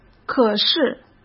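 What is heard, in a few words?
"可是", Mandarin Chinese; hsk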